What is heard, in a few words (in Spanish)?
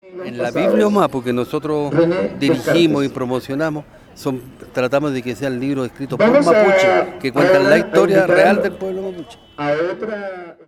cuña; libro puente alto; organizaciones de puente alto celebran el dia del libro